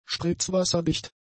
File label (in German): "spritzwasserdicht", German; adjectives